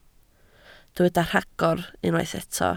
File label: "rhagor", Welsh